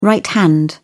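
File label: "right hand", British English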